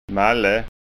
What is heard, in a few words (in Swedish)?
"malle", skanska